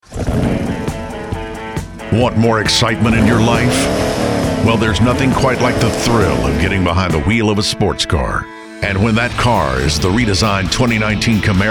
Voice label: commercial